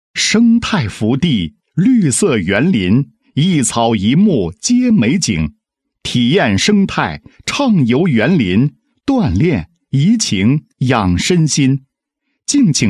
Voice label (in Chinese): 男 解说 节目解说; 生态福建行